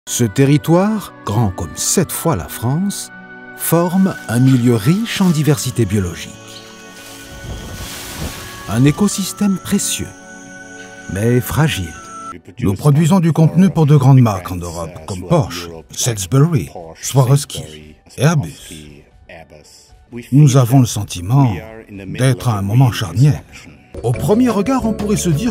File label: Documentary